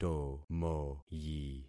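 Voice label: "tomoyi", concatenated syllables; Affixing experiment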